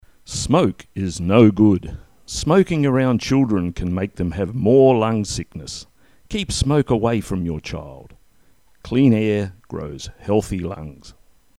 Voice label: english smoking